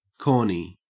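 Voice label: "corny", Australian English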